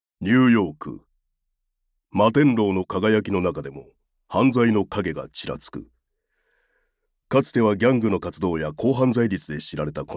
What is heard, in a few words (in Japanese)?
ナレーション シリアス番組系